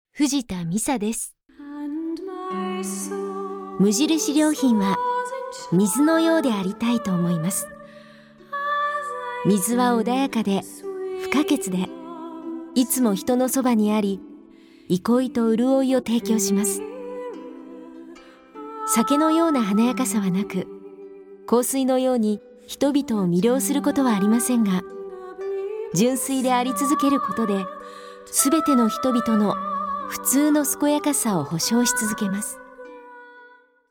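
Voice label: female radio commercial English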